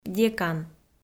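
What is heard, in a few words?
"декан", Komi, female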